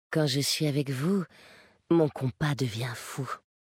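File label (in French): French Femme Flirt; Kultirassien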